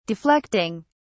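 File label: "deflecting", British English, dictionary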